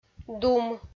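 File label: "дум", Komi, female; kpv audio dict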